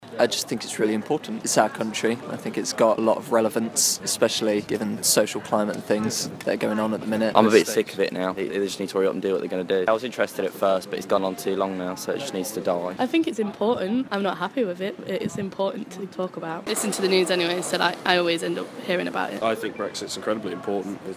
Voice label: Student Brexit Vox